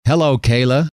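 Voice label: "hello", male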